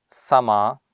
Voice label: "samaa", Sanskrit